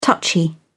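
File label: "touchy", English, British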